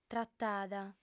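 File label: "tratada", female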